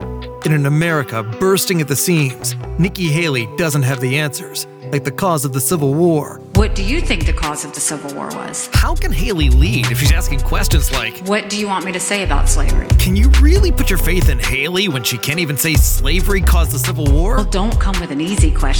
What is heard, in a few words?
Republican Attack Ad VO